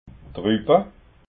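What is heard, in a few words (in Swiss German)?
"ruppa", Alsatian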